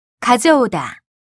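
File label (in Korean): "가져오다", Korean; TOPIK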